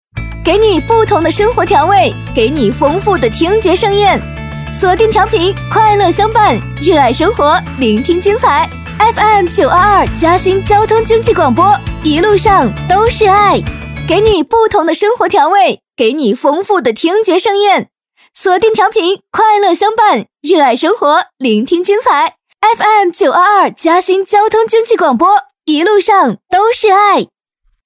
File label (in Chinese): 女-台宣-【欢快】; 大气质感; 轻松活泼